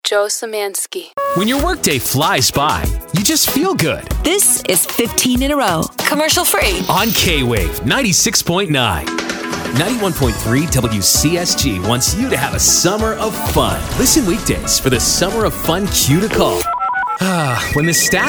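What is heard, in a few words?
radio male light adult contemporary; radio imaging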